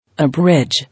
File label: "Abridge", English